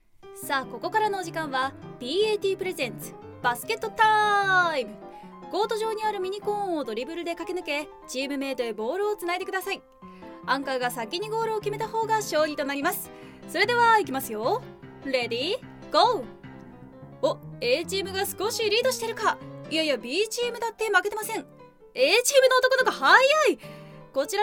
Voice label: sports announce